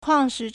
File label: "kuang4shi2", Chinese